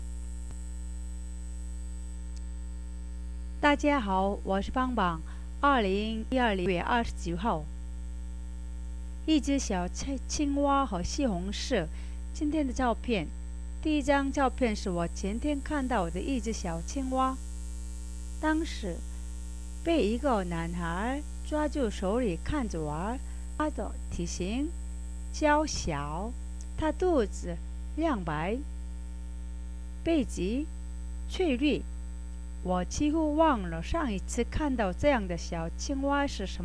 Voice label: chinese